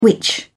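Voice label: "witch", English, British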